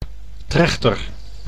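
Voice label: "trechter", Dutch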